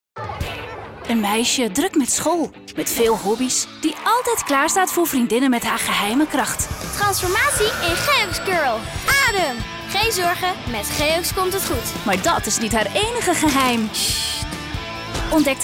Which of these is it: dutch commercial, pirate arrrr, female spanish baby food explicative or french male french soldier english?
dutch commercial